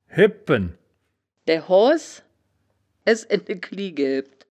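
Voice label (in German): "hebpen", wittlicher woerterbuch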